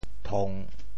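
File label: "thong3", Teochew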